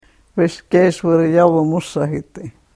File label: karuk